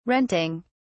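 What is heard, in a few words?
"renting", English, British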